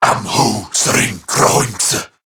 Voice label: Patrol Corrupted Grineer Male

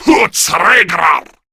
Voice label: Player Spotted, Male

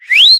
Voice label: Horse Start Adult, Dialog; Duviri

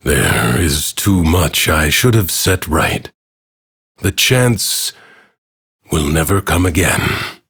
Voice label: Ambient Bark Sad; Duviri; Teshin

